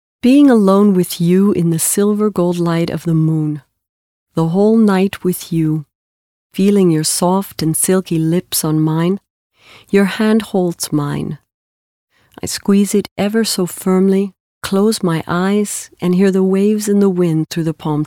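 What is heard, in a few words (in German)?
English US Schauspiel Sanft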